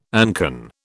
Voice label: "ancon", British